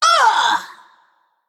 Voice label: Female Naga Death